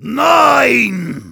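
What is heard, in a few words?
jeers, German; Heavy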